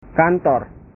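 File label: "kantor", Indonesian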